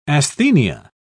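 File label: "asthenia", British English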